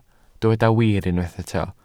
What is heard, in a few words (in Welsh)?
"wir" Welsh